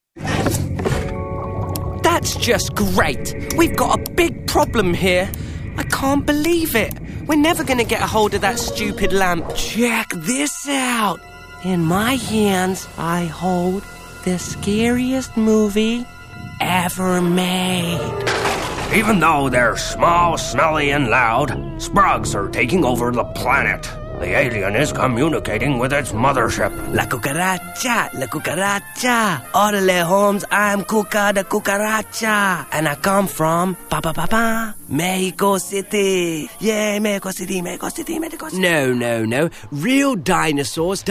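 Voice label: animation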